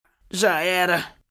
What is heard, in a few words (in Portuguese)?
vozes antigas